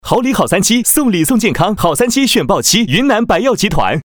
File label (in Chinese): 男 青春; 好三七